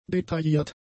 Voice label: "detailliert", german; adjectives

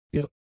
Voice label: "irr", german; adjectives

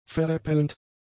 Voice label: "veräppelnd", German; adjectives

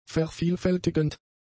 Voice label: "vervielfältigend", German; adjectives

